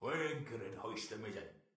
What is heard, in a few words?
beat pirate voice; goldentavern